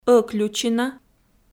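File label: "ӧключина", Komi, female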